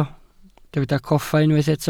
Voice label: "coffa", Welsh